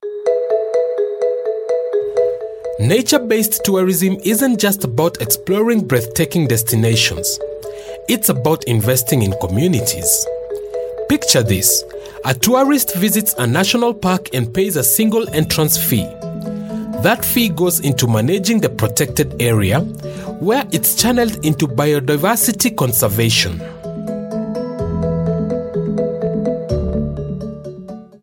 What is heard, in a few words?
Kenyan voiceover corporate